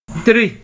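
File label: "three", Nigerian Pidgin, male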